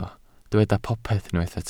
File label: "popeth", Welsh